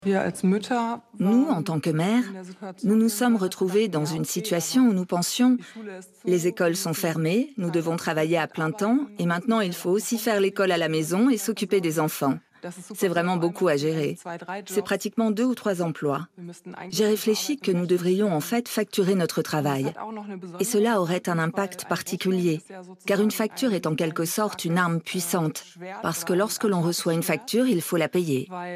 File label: Voice over Doc; Les femmes riches ne courent pas les rues